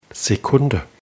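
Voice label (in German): "sekunde", German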